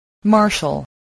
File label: "martial", spelling voice